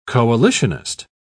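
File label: "coalitionist", British English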